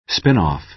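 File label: "spin-off", American English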